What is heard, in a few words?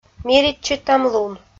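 "миритчытӧмлун" Komi, female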